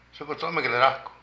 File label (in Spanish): Cocinar, Zapoteco de Petapa; Vocabulary